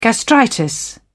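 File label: "gastritis", British English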